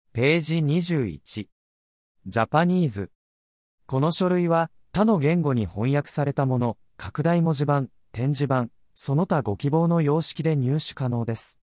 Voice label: japanese